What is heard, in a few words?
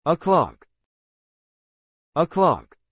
"o'clock", English